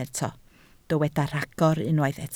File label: "rhagor", Welsh